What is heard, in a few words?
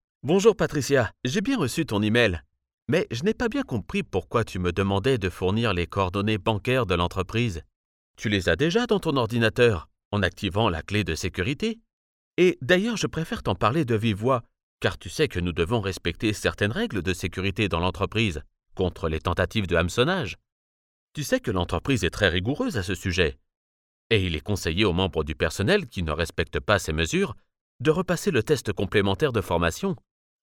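French, France, male, voice over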